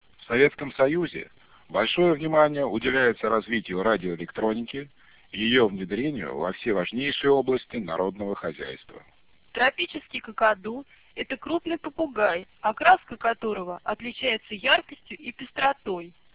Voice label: speech fs1016